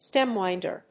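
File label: "stemwinder", English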